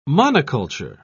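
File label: "monoculture", English, British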